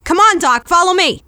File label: scout medic follow, femscout